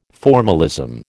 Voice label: "formalism", American

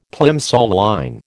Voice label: "Plimsoll line", American

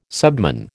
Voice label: "subman", American

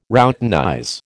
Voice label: "routinize", American

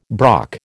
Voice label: "brock", American

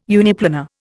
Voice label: "uniplanar", Indian

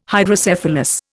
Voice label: "Hydrocephalus", Indian

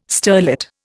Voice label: "sterlet", Indian

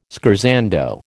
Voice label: "scherzando", American

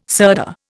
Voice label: "sirdar", Indian

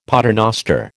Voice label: "paternoster", American

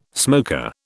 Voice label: "smoker", British

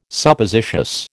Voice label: "Suppositious", American

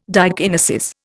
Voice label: "diakinesis", Indian

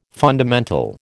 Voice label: "fundamental", American

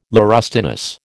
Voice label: "laurustinus", American

